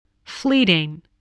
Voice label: "fleeting", spelling

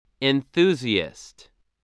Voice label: "enthusiast", voice, english

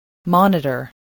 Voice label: "monitor", voice, spelling

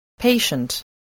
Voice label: "patient", English, voice

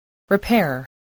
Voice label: "repair", English

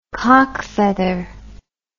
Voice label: "coq feather", English

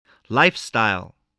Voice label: "lifestyle", spelling

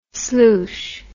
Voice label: "sloosh", words

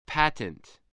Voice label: "patent", English, voice

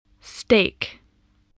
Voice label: "steak", voice

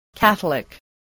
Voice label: "catholic", spelling

